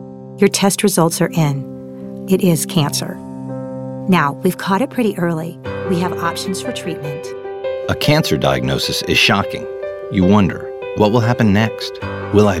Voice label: Medical Center Commercials; Olathe